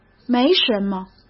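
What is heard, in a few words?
"没什么", Mandarin Chinese; chinese flashcards; hsk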